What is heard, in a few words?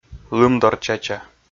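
"лымдорчача", Komi, male; kpv audio dict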